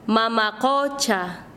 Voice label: "mar", Quechua Chanka

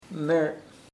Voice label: "behind atras de", chamacoco

